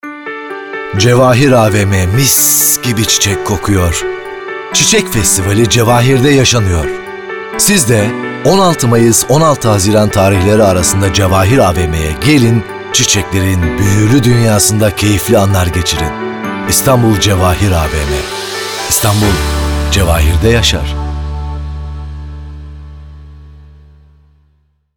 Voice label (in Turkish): REKLAM